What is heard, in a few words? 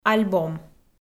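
"альбом", Komi, female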